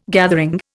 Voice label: "gathering", Indian